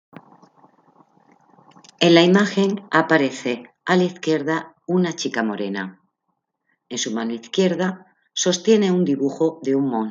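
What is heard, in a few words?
count to five in french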